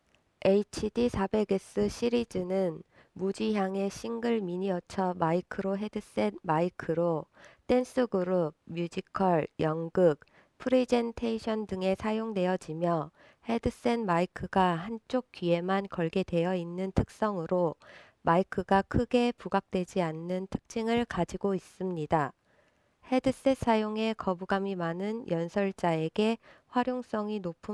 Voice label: female, wireless microphone; votex hd400; korea